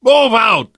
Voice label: emphatic go, survivor voice; source engine; left 4 dead 2; namvet